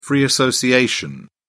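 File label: "free association", British English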